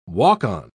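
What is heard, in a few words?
"walk-on", British English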